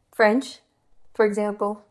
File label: Voice Acting, es